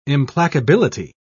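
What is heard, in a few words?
"implacability", British English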